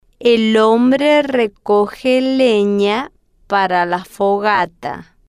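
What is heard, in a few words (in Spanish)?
el hombre recoge lena para la fogata, Spanish, female, slow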